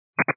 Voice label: 122.925 MHz; scanner radio